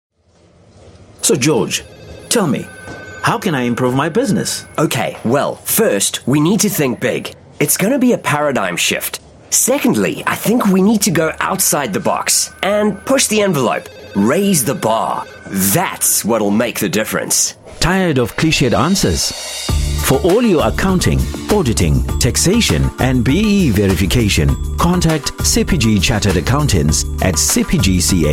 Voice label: radio advert